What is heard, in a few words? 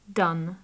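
"done", British English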